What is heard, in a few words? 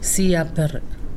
"shoulderblade", Pingelapese